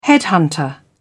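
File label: "headhunter", British English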